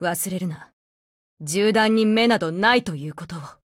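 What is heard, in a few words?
go attack, Japanese; APC556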